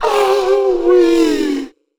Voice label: VOICEFX TEKNO 140, Techno, Voice